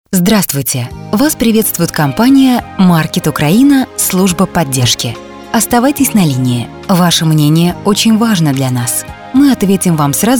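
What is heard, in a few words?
interactive voice response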